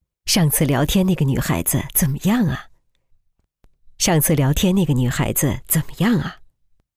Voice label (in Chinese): 女 角色 老太太关心儿子 慈祥母亲